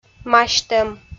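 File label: "масьтӧм", Komi, female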